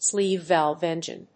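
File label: "sleeve-valve engine", English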